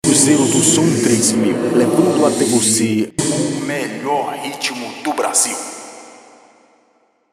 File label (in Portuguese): vinheta com efeito; cruzeiro dsom 3000mil; cgmr producoes